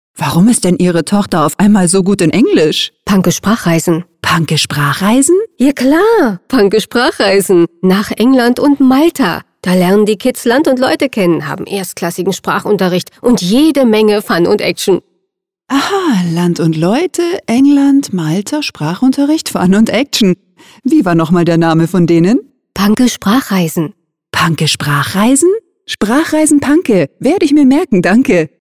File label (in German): Radiospot; Panke; Mütter Online